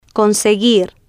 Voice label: "conseguir", spanish